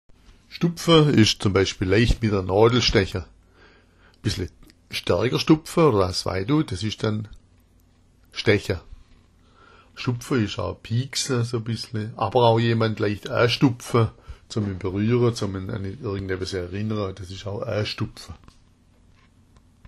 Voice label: "supfa", schwaebisch

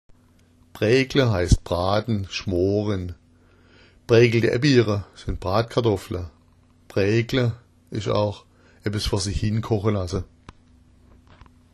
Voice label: "braegla", schwaebisch

